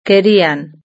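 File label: "querían", Spanish; verb-conjugator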